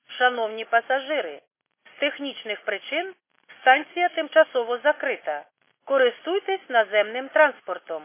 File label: khs st female, subway station